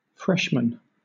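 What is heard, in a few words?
"freshmen", British English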